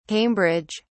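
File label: "Cambridge", British English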